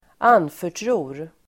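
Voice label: "anförtror", Swedish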